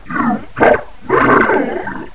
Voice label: A worrying alien voice